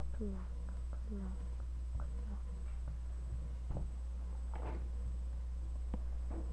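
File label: "clock", spoken word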